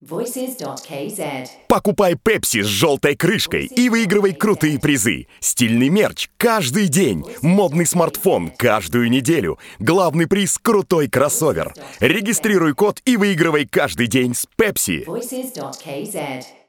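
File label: Russian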